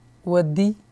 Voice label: boy